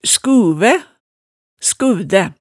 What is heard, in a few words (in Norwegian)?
"skuvæ", hemsedalsmaalet